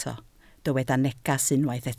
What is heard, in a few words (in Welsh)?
"neges" Welsh